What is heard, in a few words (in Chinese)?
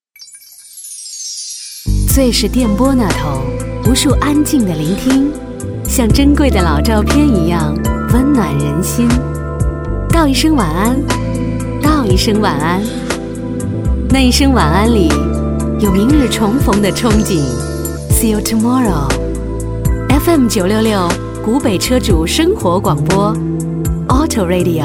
广播; 湖北车主广播